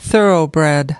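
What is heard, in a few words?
"thoroughbred", US English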